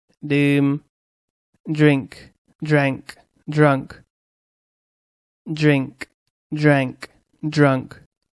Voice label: "drink", English

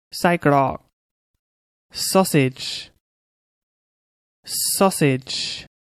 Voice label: "sausage", English; noun

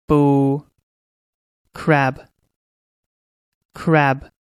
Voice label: "crab", English; noun

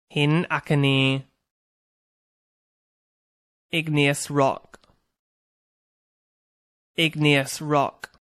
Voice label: "igneous rock", English; Science New Vocabulary

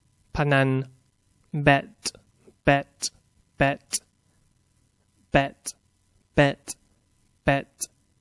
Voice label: "bet", English; Verb

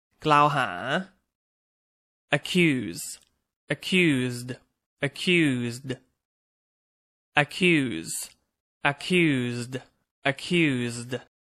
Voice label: "accuse", English; Verb

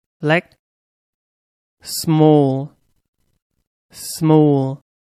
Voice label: "small", English; adj

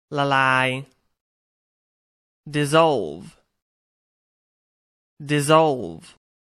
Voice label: "dissolve", English; Science New Vocabulary

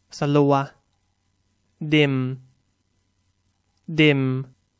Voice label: "dim", English; Adj

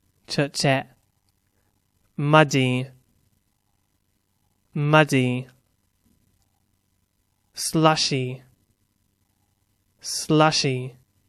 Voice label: "muddy", English; Adj

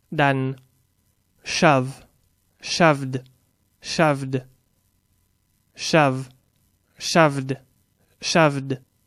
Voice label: "shove", English; Verb

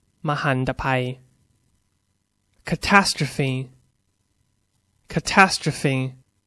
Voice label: "catastrophe", English; Noun

